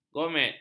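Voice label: "gourmet", Malay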